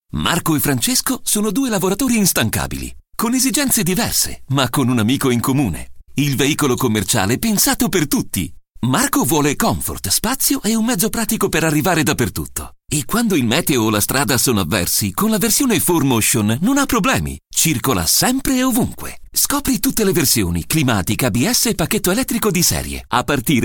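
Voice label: Italian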